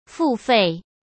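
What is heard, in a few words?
"付费", Mandarin; chinese flashcards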